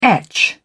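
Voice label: "etch", British English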